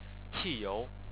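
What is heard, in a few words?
"qi4you2", Chinese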